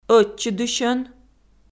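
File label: "ӧтчыдысьӧн", Komi, female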